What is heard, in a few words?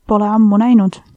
long time no see, estonian